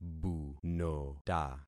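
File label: "bunota", concatenated syllables; Affixing experiment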